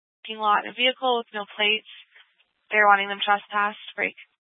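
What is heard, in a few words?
Incident Tactical, scanner audio recording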